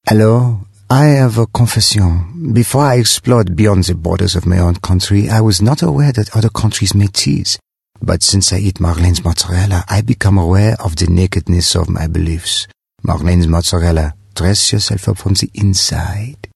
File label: Accent French